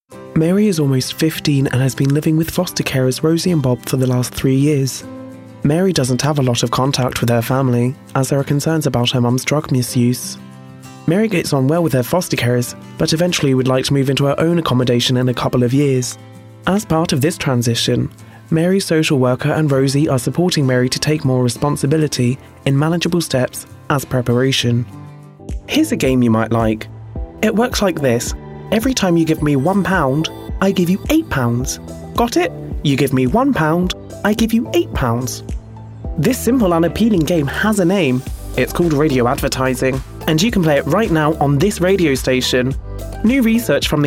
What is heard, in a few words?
Voiceovers UK Showreel